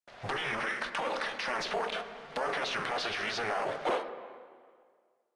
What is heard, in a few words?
Controller, Radio Interceptions; Customs; Grineer Controller